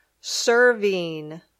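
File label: "serving", English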